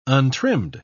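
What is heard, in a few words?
"untrimmed", British English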